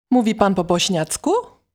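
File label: Polish, male, Mówi Pan po bośniacku?; Sprechen Sie Bosnisch?; Polnisch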